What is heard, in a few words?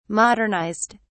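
"modernized", British English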